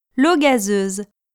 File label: "l'eau gazeuse", French